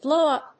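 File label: "blowup", English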